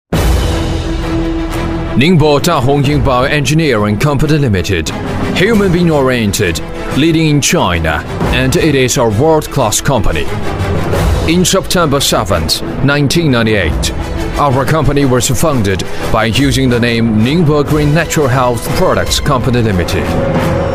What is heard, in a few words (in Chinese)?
英语男, 刚猛自信